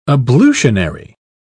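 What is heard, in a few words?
"ablutionary", British English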